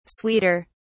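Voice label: "sweeter", British English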